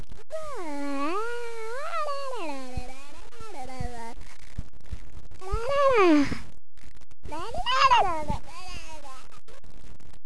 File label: babble